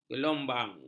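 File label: "gelombang", Malay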